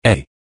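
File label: "est", French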